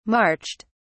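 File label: "marched", English, British English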